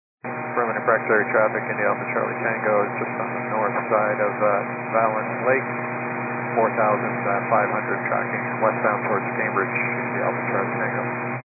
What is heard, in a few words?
122 925MHz, scanner radio